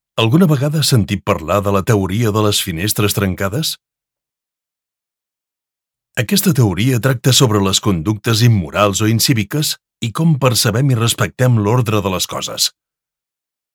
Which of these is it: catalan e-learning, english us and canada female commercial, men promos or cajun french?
catalan e-learning